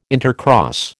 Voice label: "intercross", American